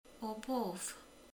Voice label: "tűzhely, kemene", romani

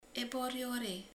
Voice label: "katicabogár", romani

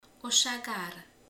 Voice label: "oroszlán", romani

